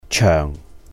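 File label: "chèuhng", Cantonese; long; 長; holidays and festivals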